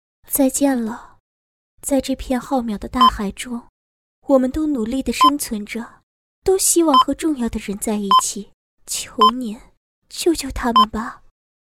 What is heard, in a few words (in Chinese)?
女35 动画角色女